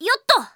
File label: winmax, Japan Anime Girls; Windows; Bing; Nanao